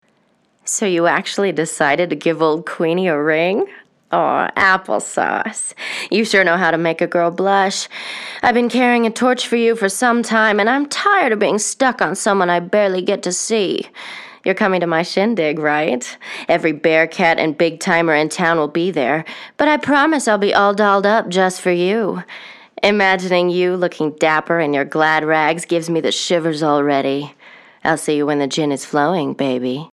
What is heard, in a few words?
Phone Message